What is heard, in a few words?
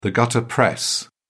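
"gutter press", British English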